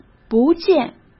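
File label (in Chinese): "不见", Mandarin Chinese; chinese-flashcards; hsk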